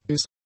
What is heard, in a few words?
"bis" German; prepositions